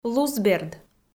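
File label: "лузберд" Komi, female; kpv audio dict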